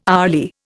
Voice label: "hourly", Indian

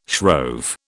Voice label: "shrove", British

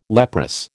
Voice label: "leprous", American

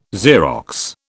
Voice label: "Xerox", British

